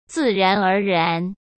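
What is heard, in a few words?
"自然而然", Mandarin Chinese; hsk; chinese-flashcards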